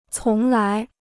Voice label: "从来", Chinese

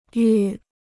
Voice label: "愈", Chinese

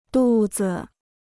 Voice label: "肚子", Chinese

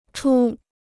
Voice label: "出", Chinese

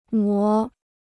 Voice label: "磨", Chinese

